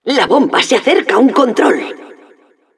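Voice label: cart defender warning, Spanish; Announcer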